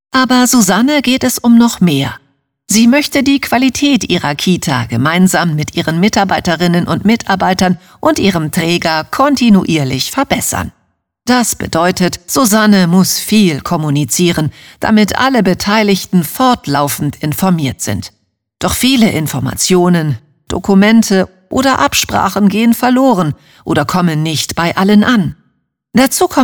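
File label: female, German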